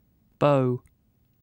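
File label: "beau", British English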